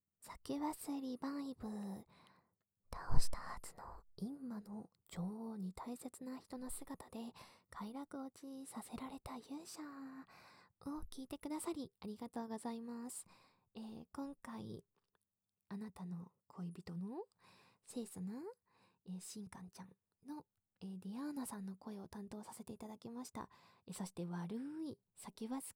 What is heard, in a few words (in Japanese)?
フリートーク